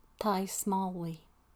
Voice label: "Fire station", Gaelic